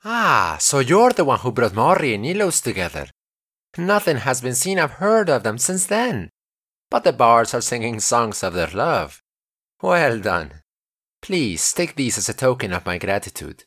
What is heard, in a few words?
breton, voice auditions